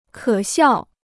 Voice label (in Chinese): "可笑", Chinese